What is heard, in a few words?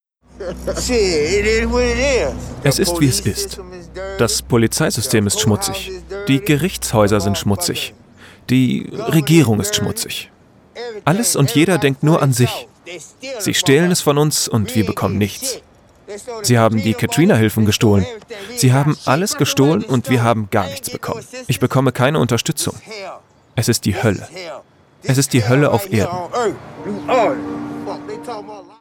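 German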